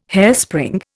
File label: "hairspring", Indian